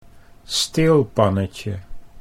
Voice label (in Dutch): "steelpannetje", Dutch